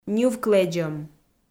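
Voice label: "ньывклэдзӧм", Komi, female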